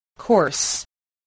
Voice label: "course", voice spelling